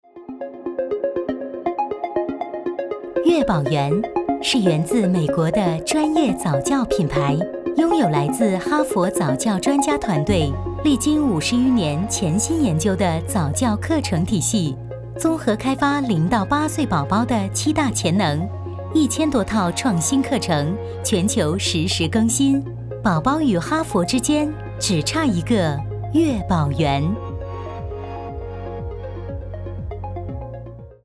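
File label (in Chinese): 广告早教体系; 悦宝源早教体系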